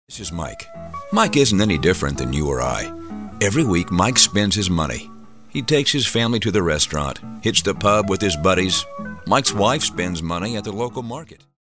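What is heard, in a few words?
American English, male, narration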